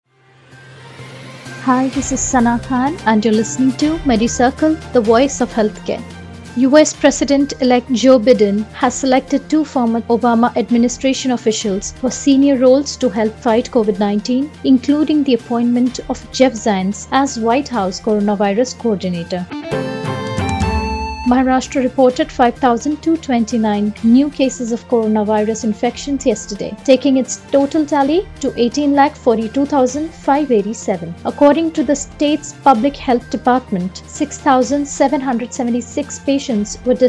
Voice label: english news